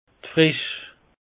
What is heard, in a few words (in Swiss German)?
"fresch", alsace; peche